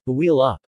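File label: "buildup", English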